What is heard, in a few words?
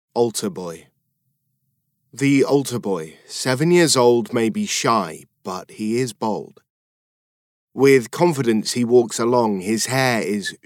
audiobook